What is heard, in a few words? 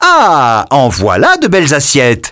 French - dialogue, reveal